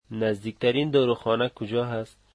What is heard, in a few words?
Where is the closest pharmacy, dari